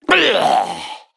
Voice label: Male Ankoan Death